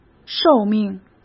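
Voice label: "寿命", Mandarin Chinese; hsk; chinese-flashcards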